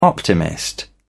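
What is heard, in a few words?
"optimist", British English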